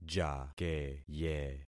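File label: "jakeye", concatenated syllables; Affixing experiment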